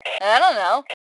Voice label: Respond Dont Know, Female; T2 Female 03